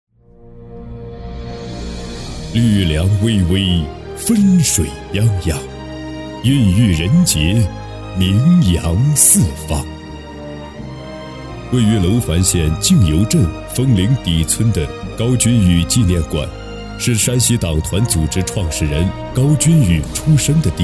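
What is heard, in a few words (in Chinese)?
专题片纪念馆; 高君宇纪念馆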